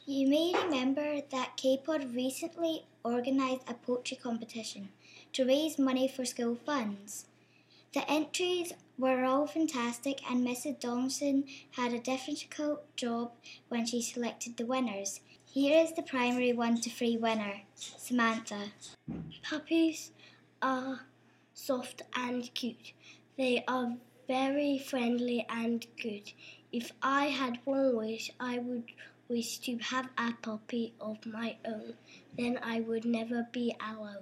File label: Poem